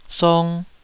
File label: "song2", Taiwanese